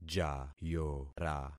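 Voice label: "jayora", concatenated syllables; Affixing experiment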